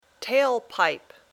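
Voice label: "tail pipe", English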